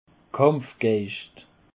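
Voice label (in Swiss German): "kampfgeischt", Alsatian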